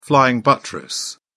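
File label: "flying buttress", British English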